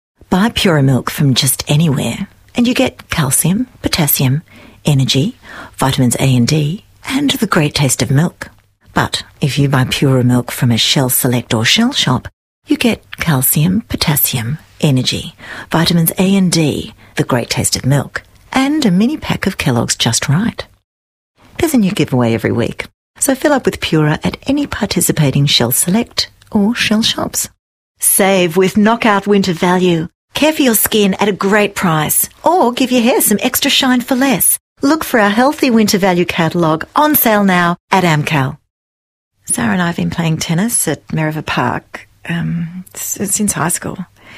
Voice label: Voice Over